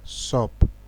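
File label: "sup", Nigerian Pidgin, male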